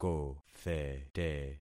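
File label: "kothete", concatenated syllables; Affixing experiment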